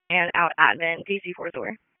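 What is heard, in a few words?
Wake County EMS Dispatch, p25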